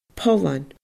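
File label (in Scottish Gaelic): "pollan", Scottish Gaelic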